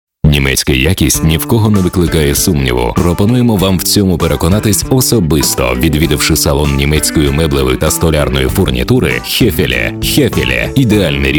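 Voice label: locutor ucraniano ukrainian voiceover, Ukrainian male voice over talent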